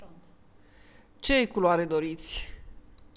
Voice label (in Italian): shopping, rumeno; frasi utili